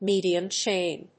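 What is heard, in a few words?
"medium chain", English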